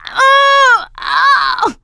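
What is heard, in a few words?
choke, male; survivor; producer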